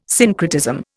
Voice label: "syncretism", Indian